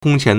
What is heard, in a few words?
"kong1qian2", Chinese